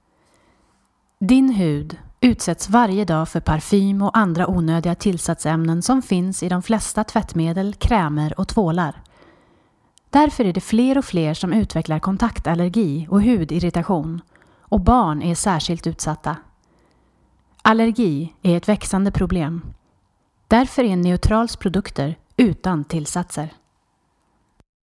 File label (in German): schwedisch werbung